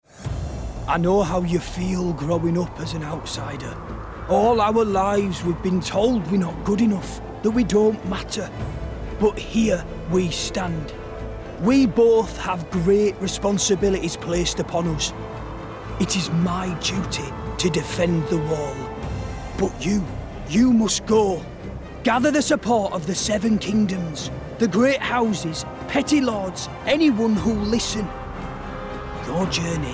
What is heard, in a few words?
Gaming Northern Warrior